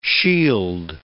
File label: "shield", English